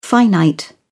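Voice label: "finite", British English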